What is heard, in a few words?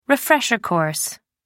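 "refresher course", English, uk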